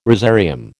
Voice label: "rosarium", American